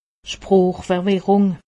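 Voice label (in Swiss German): "sproochverwirrung", Haut Rhin; medecine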